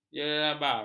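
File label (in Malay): "jerabar", Malay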